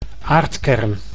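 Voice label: "aardkern", Dutch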